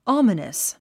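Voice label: "ominous", English; 発音 άmənəs アマナス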